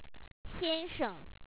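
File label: "xia1nsheng", Chinese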